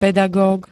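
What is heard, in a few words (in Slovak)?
"pedagóg", Slovak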